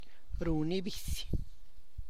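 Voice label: lets someone know, Tlacochahuaya Zapotec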